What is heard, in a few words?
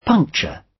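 "puncture", British English; Time, history; Thời gian, lịch sử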